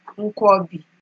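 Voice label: "nkwobi", Nigerian Pidgin, female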